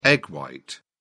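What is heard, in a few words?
"egg white", British English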